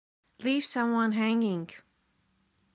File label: leave someone hanging pronunciation; Best English Nitra